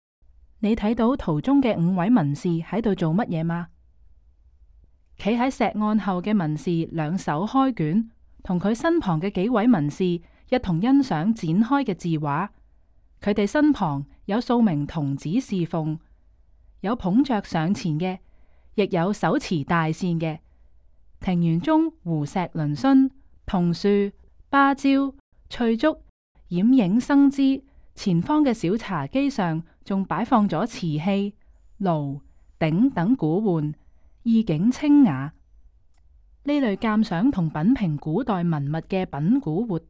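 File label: Cantonese, audio guide; stories untold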